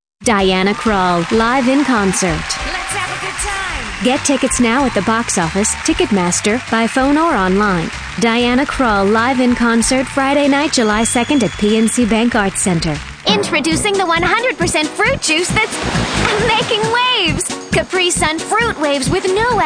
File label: commercial